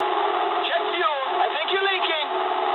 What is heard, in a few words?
eng tank damaged mood med, speech eng; War Thunder